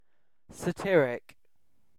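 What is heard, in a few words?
"satiric", British English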